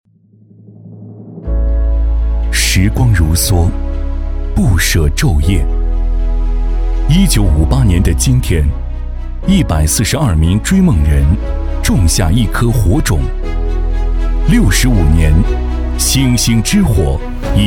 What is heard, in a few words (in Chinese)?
男 走心讲述; 中国电力; 激情有力